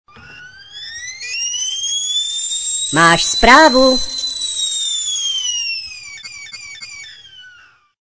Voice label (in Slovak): sms hlásky cz